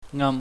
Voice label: "ngam", Chinese